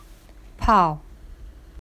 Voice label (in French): chinois partez